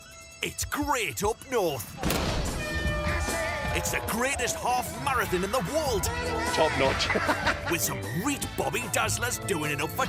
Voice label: english british promos